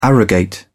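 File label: "arrogate", British English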